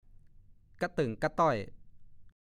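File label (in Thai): "กะเติ่งกะต้อย" ภาษาโคราช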